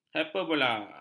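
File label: "hiperbola", Malay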